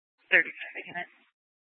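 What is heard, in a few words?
Police 1 Dispatch, Scanner audio recording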